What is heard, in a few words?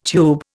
"tube", Indian